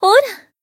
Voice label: Voice attack jp; toova